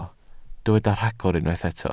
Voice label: "rhagor", Welsh